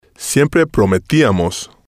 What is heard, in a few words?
"lo Prometiamos", spanish